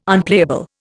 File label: "Unplayable", Indian